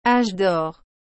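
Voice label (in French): "âge d'or", French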